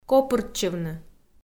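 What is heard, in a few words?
"копыртчывны" Komi, female; audio dict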